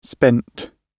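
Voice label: "spent", British English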